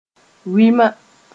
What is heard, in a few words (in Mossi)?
"wɩma", moore